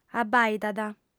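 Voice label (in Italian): "abbaidat", lingua sarda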